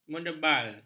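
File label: "menebal", Malay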